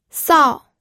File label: "sao4", pinyin